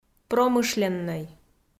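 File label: "промышленнӧй", Komi, female; kpv audio dict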